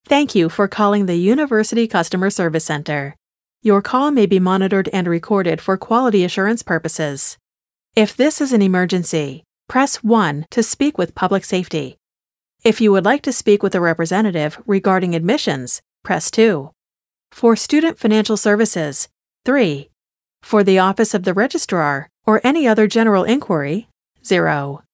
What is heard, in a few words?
us english ivr